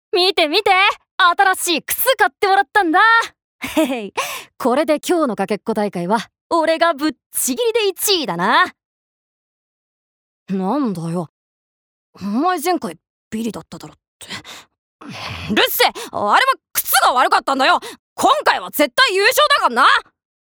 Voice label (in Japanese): アニメ